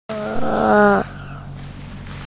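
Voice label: "ge1", Mandarin Chinese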